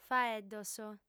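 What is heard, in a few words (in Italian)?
"faeddos", lingua sarda, female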